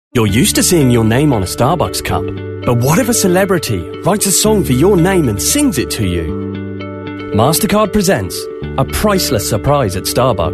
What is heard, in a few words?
english british voice over male